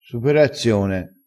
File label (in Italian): "superazione", vocabolario dialettale crespinese